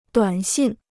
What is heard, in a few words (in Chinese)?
"短信", Chinese